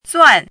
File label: "zuan4", Chinese